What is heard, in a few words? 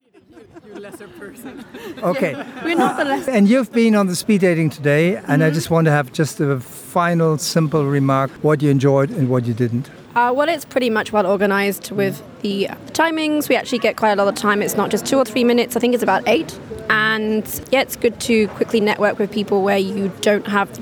female anonym